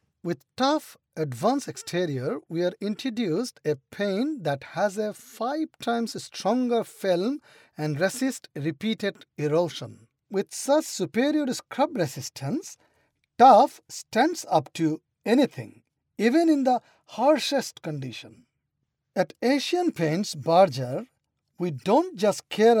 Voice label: male indian voice over talent sales